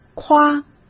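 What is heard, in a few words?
"夸", Mandarin Chinese; chinese-flashcards; hsk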